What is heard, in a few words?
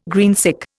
"greensick", Indian